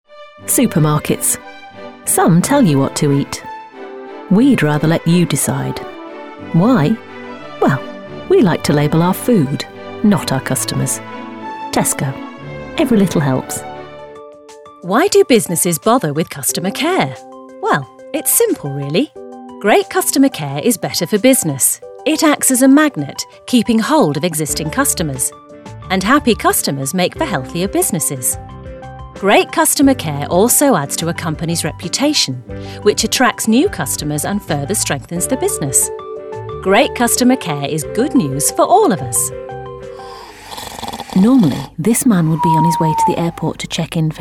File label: Voiceovers UK Showreel